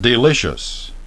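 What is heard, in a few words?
"delicious", English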